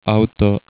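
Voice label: "auto", Slovak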